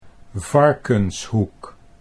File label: "Varkenshoek", Dutch